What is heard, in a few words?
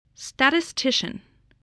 "statistician", voice